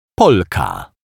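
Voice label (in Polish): "Polka", Polish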